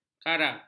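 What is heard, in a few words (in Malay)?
"karap", Malay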